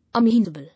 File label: "Amenable", English